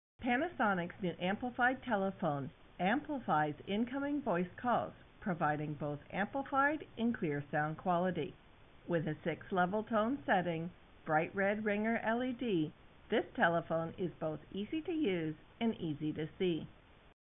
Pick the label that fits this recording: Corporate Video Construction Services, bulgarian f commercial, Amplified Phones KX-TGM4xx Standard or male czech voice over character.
Amplified Phones KX-TGM4xx Standard